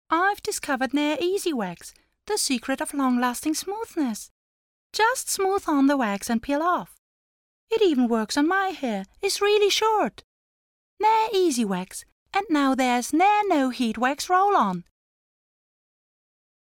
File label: voice spots english